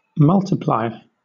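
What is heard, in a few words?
"multiply", British English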